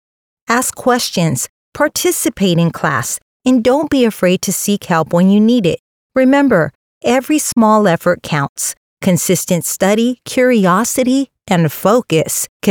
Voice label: Stay in School Dry, Stay In School E-Learning, Conversational, Friendly, relatable Female Voice